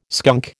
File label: "skunk", American